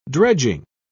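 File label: "dredging", British English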